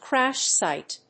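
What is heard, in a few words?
"crash site", English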